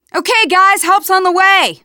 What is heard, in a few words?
radio used generic, teengirl; player; survivor; voice